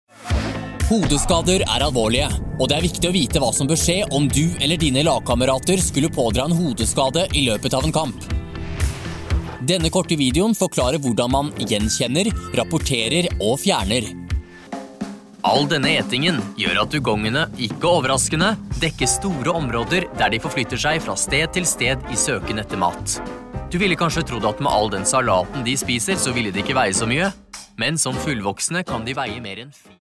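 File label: Norwegian, male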